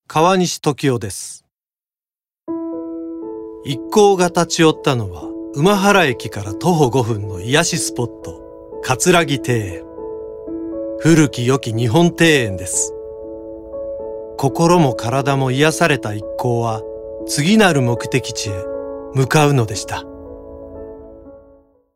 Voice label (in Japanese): ノーマル